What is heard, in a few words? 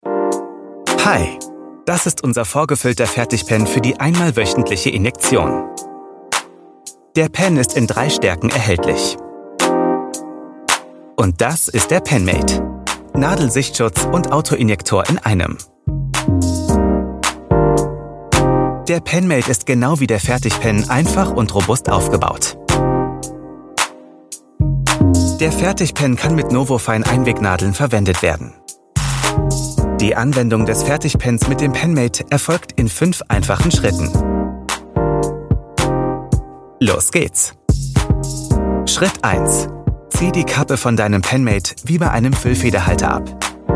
german voice corporate